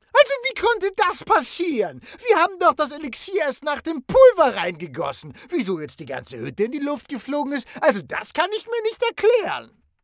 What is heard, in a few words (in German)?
Trickstimme